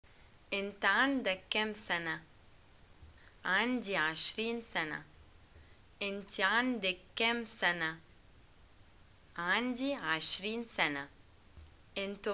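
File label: how old r u im 20, Egyptian arabic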